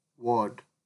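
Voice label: "word", Nigerian Pidgin, male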